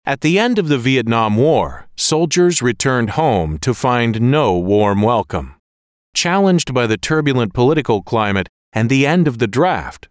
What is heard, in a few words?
Documentary